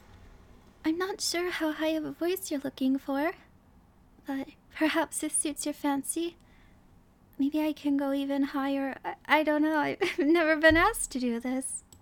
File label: Kind of High Pitch